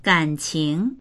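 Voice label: "gan3qing2", Mandarin Chinese; gǎnqíng; 感情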